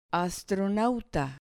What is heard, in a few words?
"astronauta", Spanish